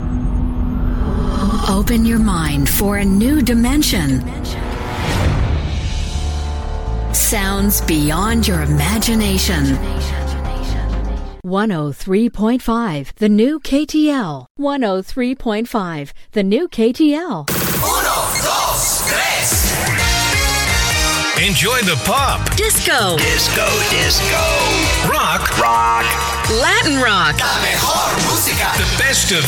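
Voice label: English Canadian, female, commercial; voice demos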